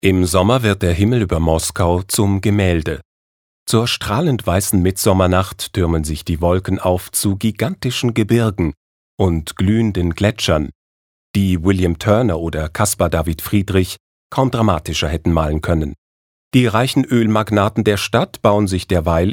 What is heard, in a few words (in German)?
Kommentar Neutral